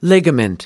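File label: "ligament", US English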